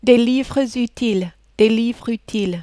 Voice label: "des livres utiles", French